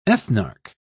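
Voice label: "ethnarch", British English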